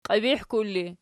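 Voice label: "qiibihkul" ergative, Archi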